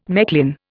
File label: "Mechlin", Indian